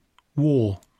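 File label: "war", English; poitiers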